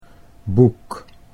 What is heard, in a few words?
"book", Dutch English